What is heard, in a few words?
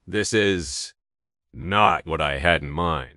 upset, speech; fertig classic games